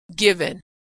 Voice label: verb "given", pronunciation; Consonant Sound Pair